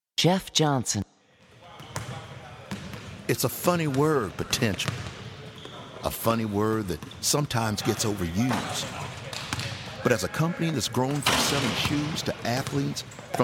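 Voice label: commercial, Voiceover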